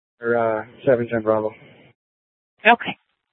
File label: Sheriff, scanner P25; Maricopa County (P25) - Thompson Peak; Shrf Lakes